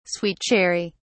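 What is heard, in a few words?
"sweet cherry", British English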